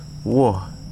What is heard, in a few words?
"come", Wahgi; lae unitech